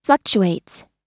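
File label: "fluctuates", US English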